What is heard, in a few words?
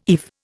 "eve", Indian